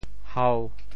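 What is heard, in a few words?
"hau1", Teochew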